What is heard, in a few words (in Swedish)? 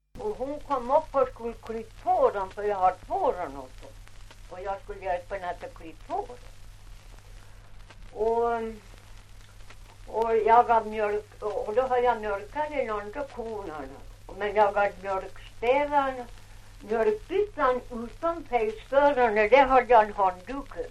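Ovansjö Gästrikland, svenska sagor dialekter; Folkminnen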